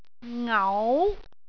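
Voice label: "ngau2", Cantonese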